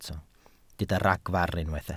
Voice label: "ragfarn", Welsh

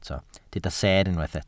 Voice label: "seer", Welsh

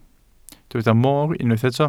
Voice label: "mor", Welsh

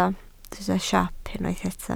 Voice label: "siop", Welsh

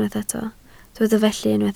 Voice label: "felly", Welsh

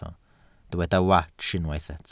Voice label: "wats", Welsh

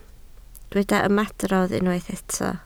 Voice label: "ymadrodd", Welsh

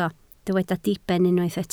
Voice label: "diben", Welsh

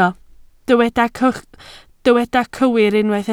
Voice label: "cywir", Welsh

